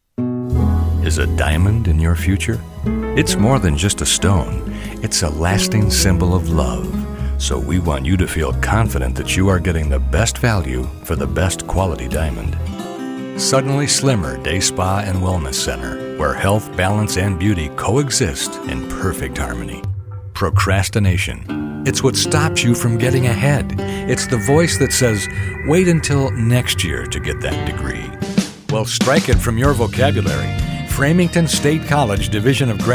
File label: ad montage